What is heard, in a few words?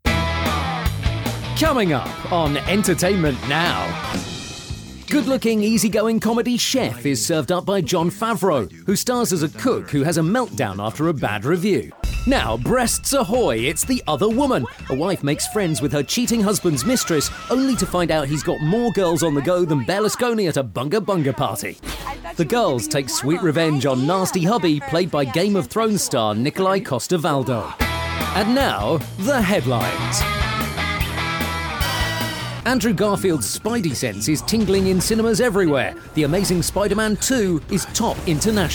english british tv shows